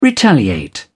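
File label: "retaliate", English, British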